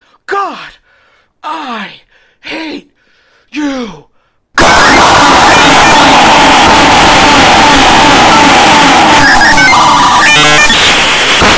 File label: god i hate you jumpscare; gordon